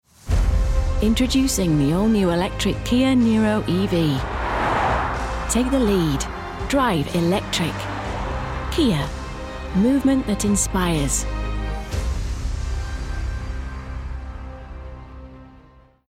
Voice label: english british automotive

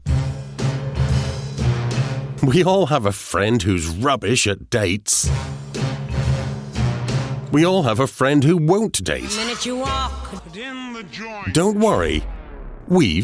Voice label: English Scottish promos

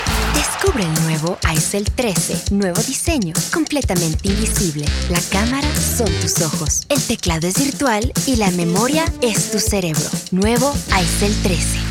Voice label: spanish latin american

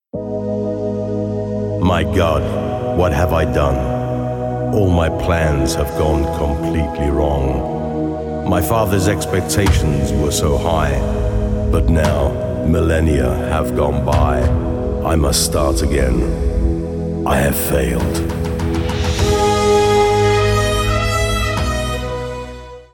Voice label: english british

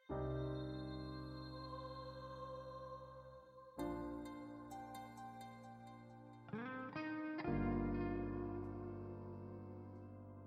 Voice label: arabic others

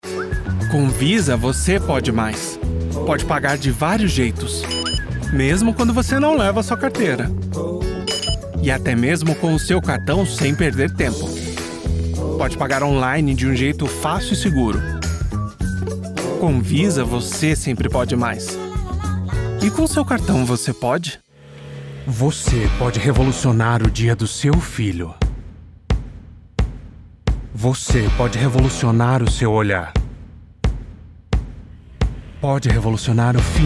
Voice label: portuguese brazilian commercial

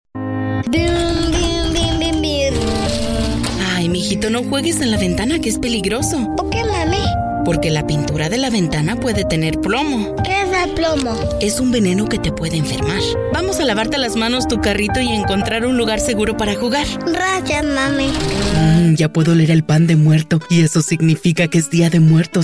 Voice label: spanish latin american commercial

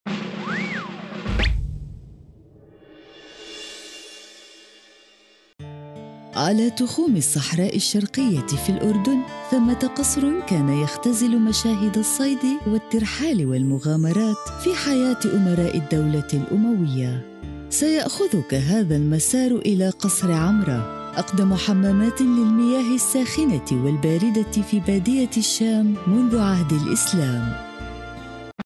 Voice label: arabic documentaries

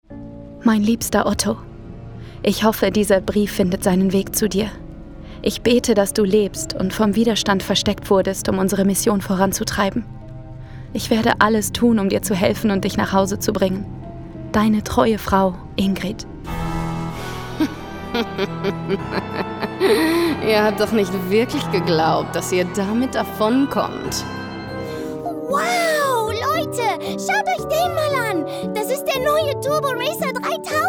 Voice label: German video games

